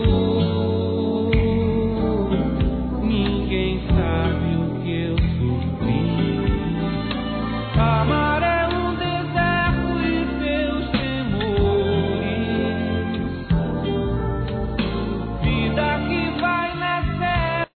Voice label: ANIV. CASAMENTO DA AMIGA F; Aniversários; Aniversários diversos; fem

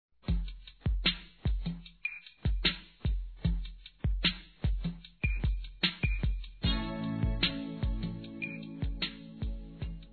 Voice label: Picante Masculino; Telemensagens

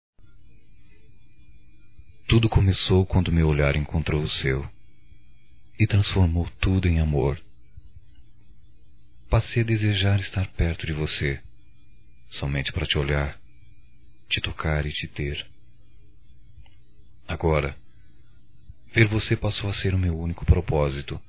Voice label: ROMÂNTICA, Telemensagens, masc

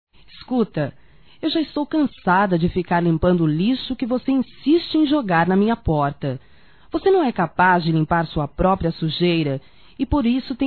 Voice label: Vizinho Porco Feminino; Telemensagens 2017

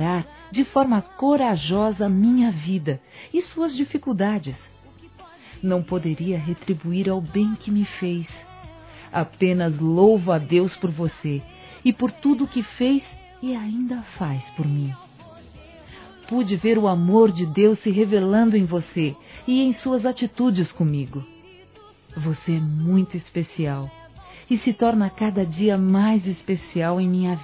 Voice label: AGRADEÇO SUA AJUDA F, Telemensagens, Gospel, fem; Telemensagens 2017

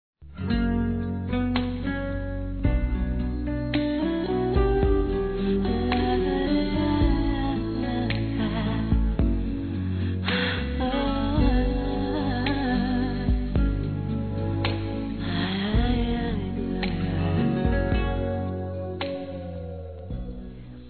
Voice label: RECONCILIAÇÃO F

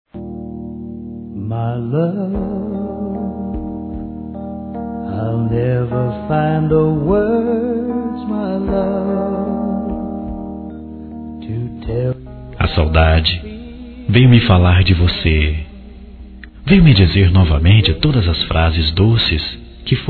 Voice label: Saudades Romântica Masculino

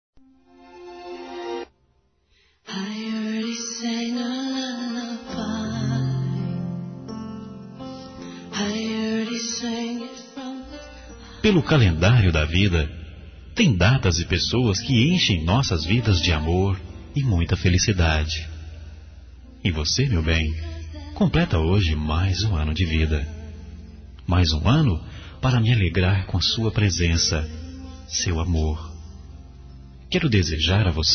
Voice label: ANIV. ROMANTICO M

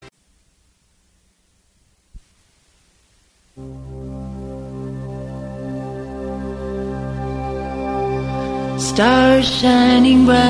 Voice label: DECLARACAO MASC, Declaração de amor; Telemensagens; Telemensagens 2017

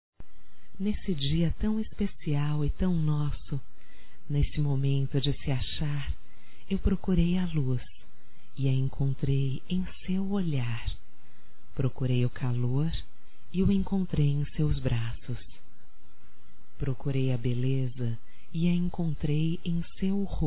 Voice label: voz - Anivers. Namoro ou Casamento (F); fem; Telemensagens 2017

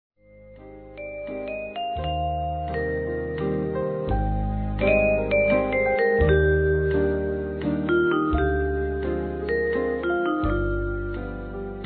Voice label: CONVITE, fem; Telemensagens; Sensuais

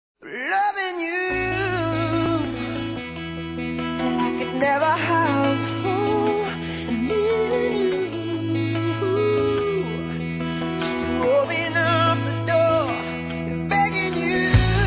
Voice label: Telemensagens Amor Masc; Telemensagens 2017

